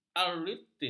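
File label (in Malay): "artritis", Malay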